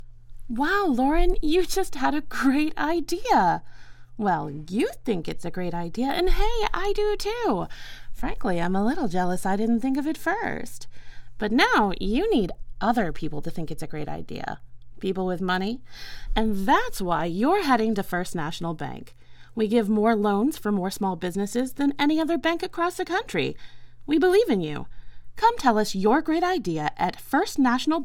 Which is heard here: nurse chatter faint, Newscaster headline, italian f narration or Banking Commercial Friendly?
Banking Commercial Friendly